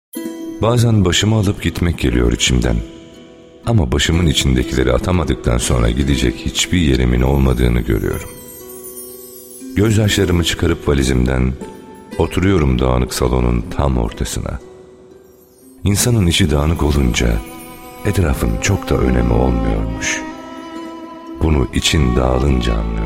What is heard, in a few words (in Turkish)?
şiir